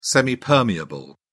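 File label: "semipermeable", English, British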